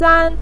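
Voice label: "san", Mandarin Chinese, neutral tone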